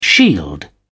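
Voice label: "shield", British English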